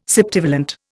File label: "septivalent", Indian